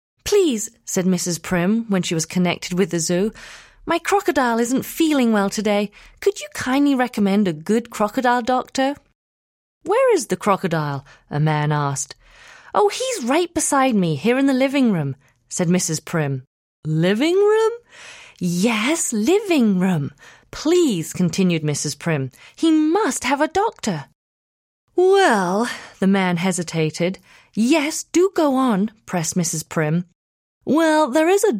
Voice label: Childrens Story